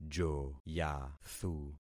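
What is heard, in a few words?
"joyathu", concatenated syllables; Affixing experiment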